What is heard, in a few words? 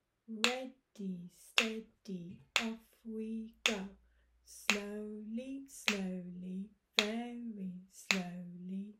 Snail and mouse rhyme; key stage 1; year 1